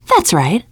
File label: taunt, teen girl